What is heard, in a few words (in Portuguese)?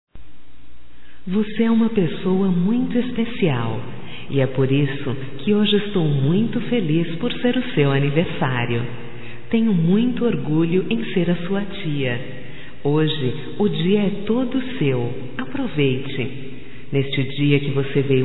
voz, fem; Telemensagens; Só Voz; Aniversßrio Sobrinha; Telemensagens 2017